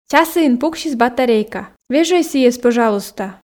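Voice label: часіын пуксис батарейка вежӧй сійӧс пӧжалуйста, Komi, female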